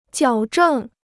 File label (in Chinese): "矫正", Chinese